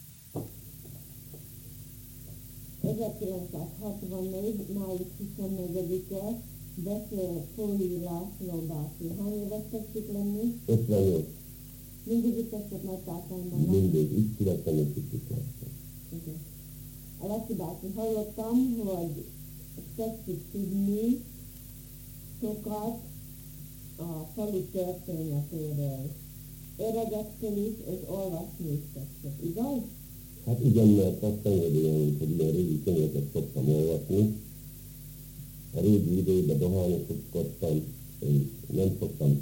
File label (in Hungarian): nyelvjaras gyujtesek